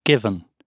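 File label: "given", British English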